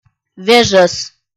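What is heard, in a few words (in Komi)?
"вежӧс", Komi, female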